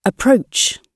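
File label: "approach", British English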